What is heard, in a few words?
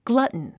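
"glutton", English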